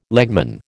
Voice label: "legman", American

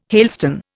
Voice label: "hailstone", Indian

